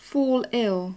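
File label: "fall ill", British English; заболеть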